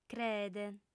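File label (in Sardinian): "creet", female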